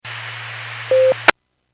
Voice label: Skywarn 146.67; Stevens Point

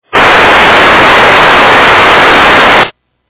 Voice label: EMS, radio

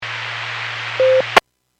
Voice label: Skywarn 146.67; Stevens Point

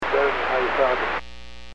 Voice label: Aviation 121.5 Emergency

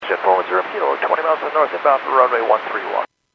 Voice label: Airports 122.7, Radio; KSTE

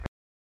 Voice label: Aviation 121.5 Emergency

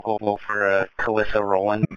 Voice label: Police Sheriff Dispatch

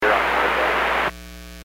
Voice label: Aviation 121.5 Emergency

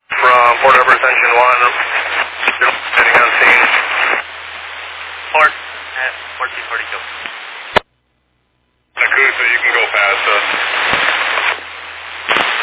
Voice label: Fire Dispatch

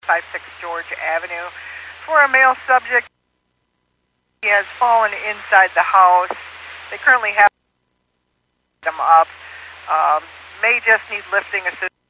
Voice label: Fire Dispatch

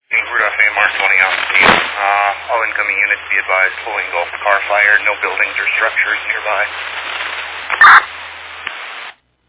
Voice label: Fire Dispatch

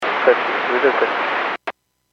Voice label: Aviation 122.7; KSTE

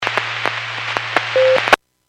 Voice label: Skywarn 146.67; Stevens Point

